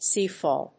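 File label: "seafowl", English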